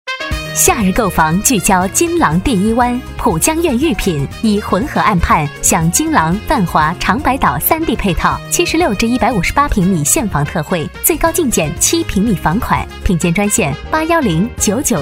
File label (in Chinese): 广告 房产广告 稳重; 地产